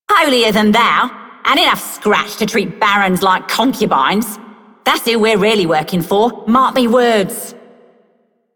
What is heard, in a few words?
Female Rail Agent, Female One, en; Dialog; Warframe; Solaris Venus